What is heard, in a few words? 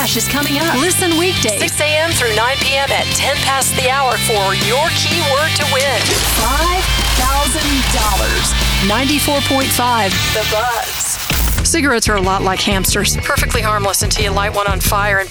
Radio Imaging Rock